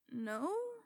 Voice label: "No" Questioning

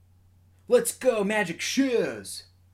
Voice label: Male

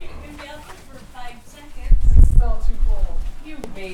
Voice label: People Talking Ambience

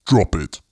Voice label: Drop It Deep Voice

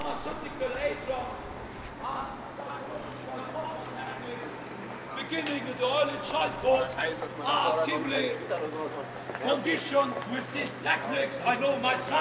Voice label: Rant

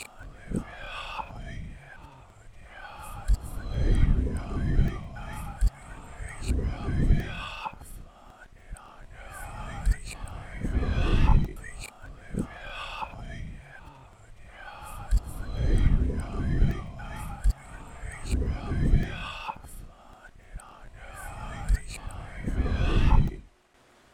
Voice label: Whispers

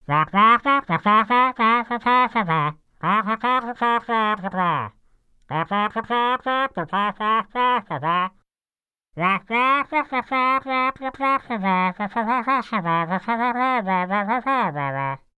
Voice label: Charlie Brown Teacher Wa Wa Effect

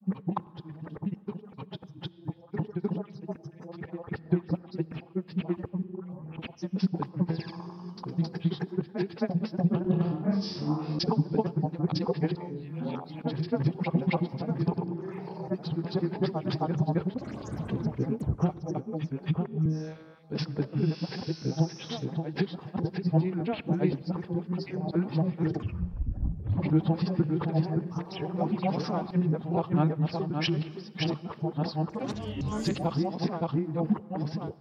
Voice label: Speech crazy

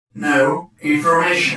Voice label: Robot Voice No Information

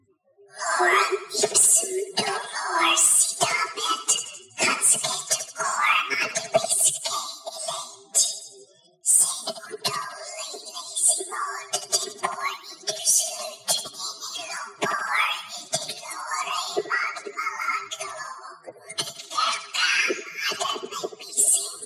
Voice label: Lorum Ipsum Whispered

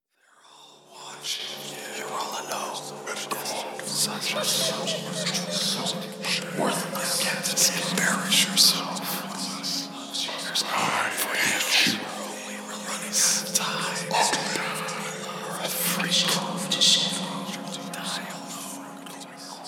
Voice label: Whisper Evil Little Nothings To Me

